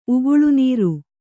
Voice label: "ಉಗುಳುನೀರು", Kannada